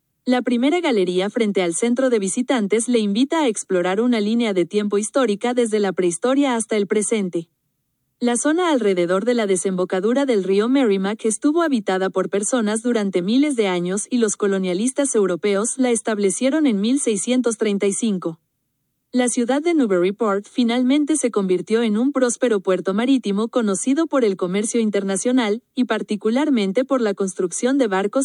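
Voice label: spanish, audio tour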